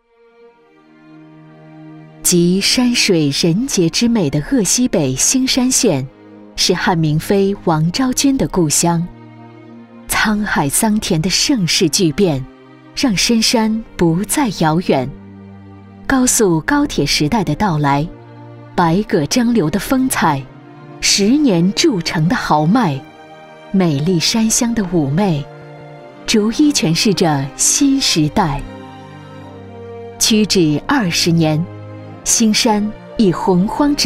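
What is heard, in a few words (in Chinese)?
宣传片大气广电事业; 兴山县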